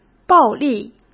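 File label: "暴力", Mandarin Chinese; hsk; chinese-flashcards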